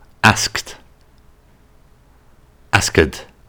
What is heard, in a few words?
"asked", English; intermediate english pronunciation test